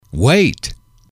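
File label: "wait", English, male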